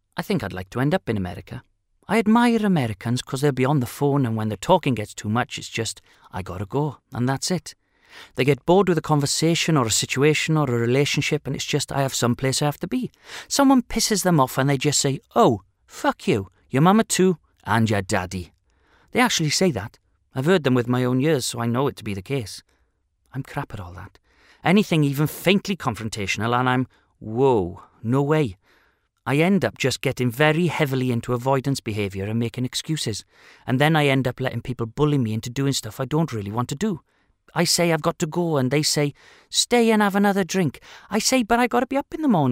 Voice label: Narration, Mobile Disco; Crazy Garys